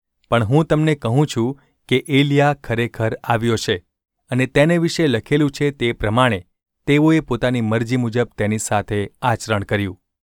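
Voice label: gu ulb mrk, Gujarati; mrk 9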